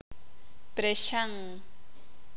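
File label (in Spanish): "Calabozo cárcel", Sakapulteko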